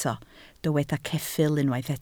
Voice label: "ceffyl", Welsh

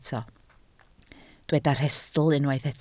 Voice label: "rhestl", Welsh

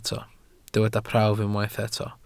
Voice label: "prawf", Welsh

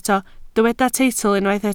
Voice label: "teitl", Welsh

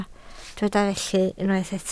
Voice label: "felly", Welsh

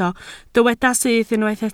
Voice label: "syth", Welsh

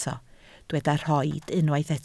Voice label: "rhoid", Welsh

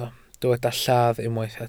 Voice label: "lladd", welsh

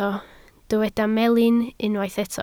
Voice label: "melin", Welsh

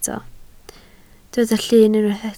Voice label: "llun", Welsh

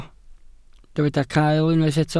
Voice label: "cael", Welsh

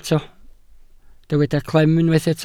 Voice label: "clem", Welsh

